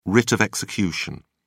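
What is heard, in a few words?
"writ of execution", English, British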